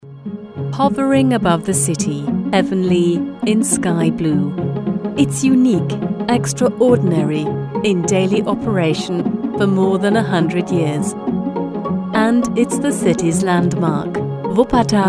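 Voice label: English, documentary; Suspension Railway In Wuppertal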